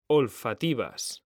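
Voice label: "olfativas", Spanish; mekanta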